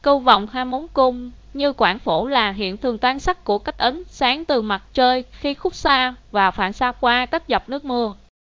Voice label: speaker, vi VN; piper voices; vivos